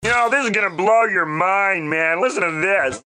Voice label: Character